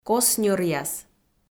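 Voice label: "кос ньӧръяс" Komi, female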